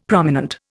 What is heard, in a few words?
"prominent", Indian; Dictionary